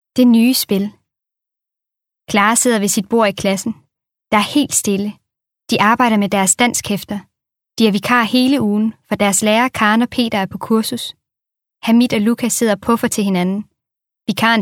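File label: Danish, Audiobook, female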